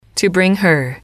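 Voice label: "to bring her", English, female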